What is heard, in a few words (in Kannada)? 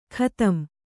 "ಖತಂ", Kannada